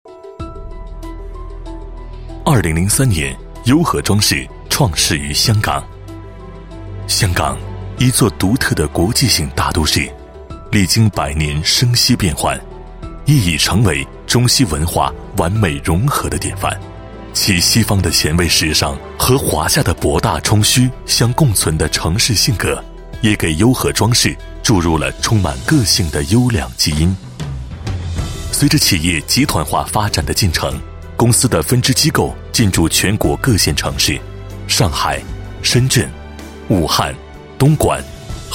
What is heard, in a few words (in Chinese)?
男 企业 装饰装修公司宣传介绍; 优合装饰